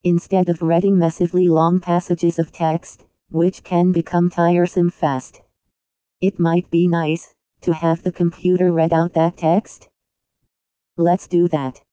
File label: text2speech picotts reads english text female voice